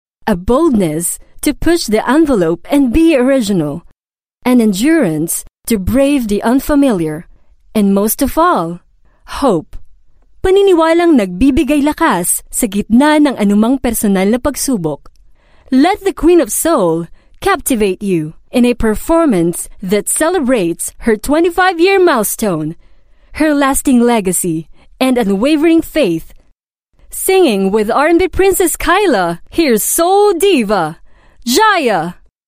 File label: Filipino English; Push The Envelope